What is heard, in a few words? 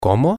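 what como, Spanish, male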